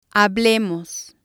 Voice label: "hablemos", spanish